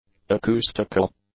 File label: "acoustical", English; adjectives